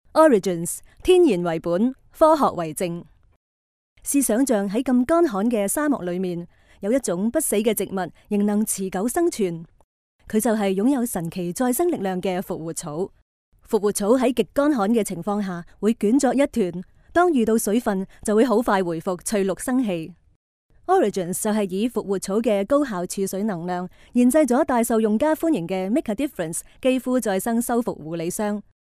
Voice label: CANTONESE female